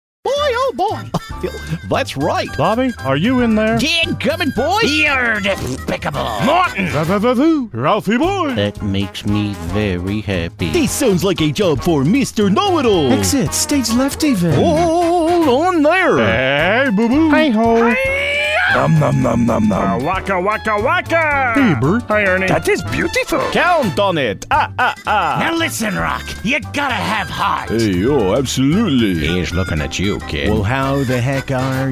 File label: Character Voices Impersonations